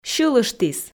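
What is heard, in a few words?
"сьылыштіс", Komi, female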